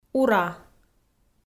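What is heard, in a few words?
"ура", Komi, female; kpv audio dict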